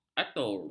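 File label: "atur", Malay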